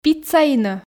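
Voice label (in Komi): "пиццаинӧ", Komi, female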